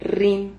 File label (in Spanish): "rrim", Silabas